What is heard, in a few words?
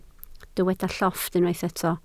"llofft", Welsh